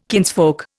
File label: "kinsfolk", Indian